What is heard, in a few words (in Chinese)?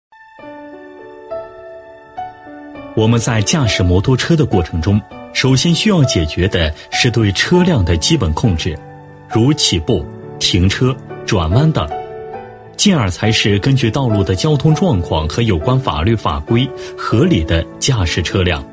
男 课件 摩托车驾驶教学, 国语男声配音 大气浑厚